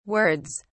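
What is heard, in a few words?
"words", British English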